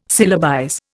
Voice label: "syllabize", Indian